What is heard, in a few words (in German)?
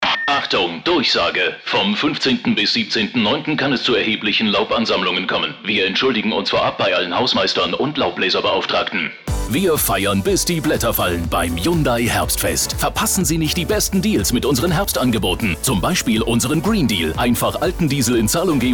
Dynamisch